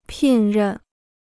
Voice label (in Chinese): "聘任", Chinese